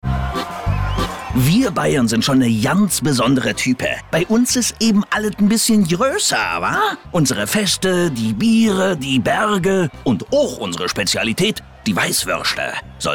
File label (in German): Fremdtake Akzent Berlinerisch